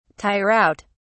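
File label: "tire out", British English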